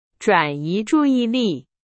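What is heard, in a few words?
Chinese, example sentence; hsk4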